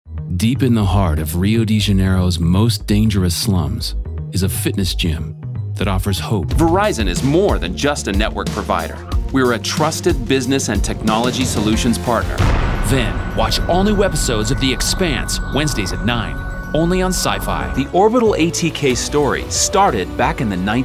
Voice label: Narration

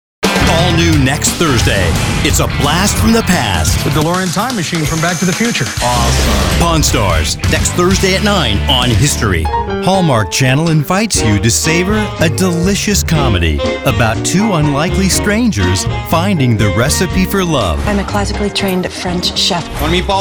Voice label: promo

